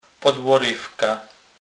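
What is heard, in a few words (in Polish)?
"podlorywka", Kurpie dialect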